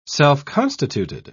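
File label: "self-constituted", British English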